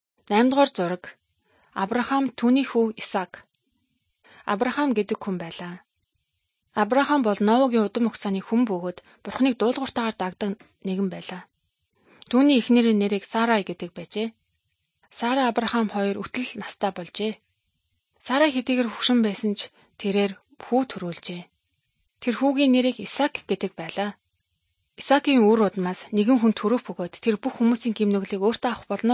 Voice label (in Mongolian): good news mongolian